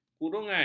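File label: "kurungan", Malay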